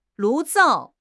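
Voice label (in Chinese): "炉灶", Chinese; HSK6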